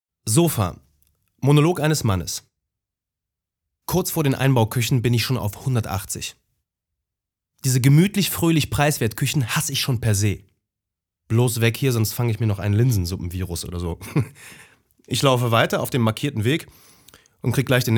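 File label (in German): Hörspielmonolog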